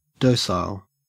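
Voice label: "docile", Australian English; pronunciations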